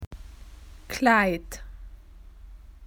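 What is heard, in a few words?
"to dress", Australian English; PictureDictionary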